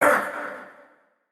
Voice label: Pain Spider, en; Mendicant Wazard

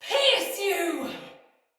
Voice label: Shoot Arrow, Soldier Female; Battle; Barks; Dialog; Sinecure Soldier Female A

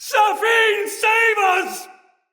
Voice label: fearful cry afar, soldier male; Dendrit

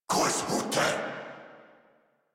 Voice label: Player Spotted Corrupted Grineer Male

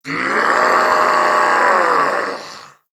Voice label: Attack Male, en; Corpus Venus; Warframe; CorpusVenusMaleB

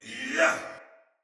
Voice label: Attack, Soldier Male; Sinecure Soldier Male B

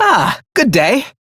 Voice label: Crew Greet, Male; Dialog; Warframe; Railjack Crew; Perrin Sequence

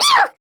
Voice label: Pain Grineer Jester; Warframe; Battle Barks

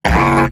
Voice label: Pain, Robot Large

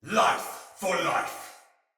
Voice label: Pre Death, Soldier Male; Battle; Barks; Dialog; Sinecure Soldier Male B

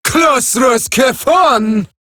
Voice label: Player Spotted, Female; Warframe; Eximus Grineer Female; Battle Barks

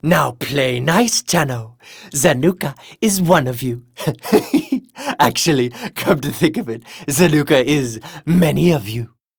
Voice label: Taunt Neutral

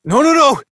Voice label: Fail Interjections, Adult, en

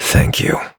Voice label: Operator Voice, en; Warframe; New War

